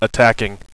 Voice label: attacking, radio bot; cstrike